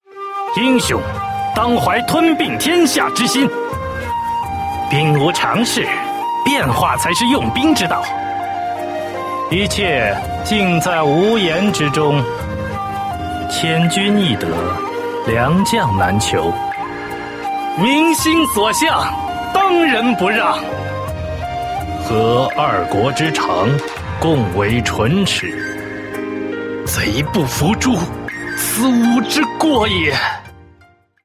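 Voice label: male young adult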